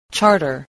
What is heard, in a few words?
"charter", English, voice